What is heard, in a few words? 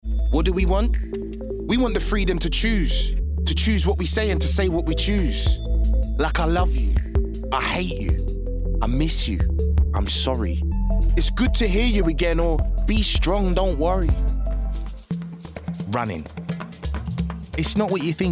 Commercials; regional accents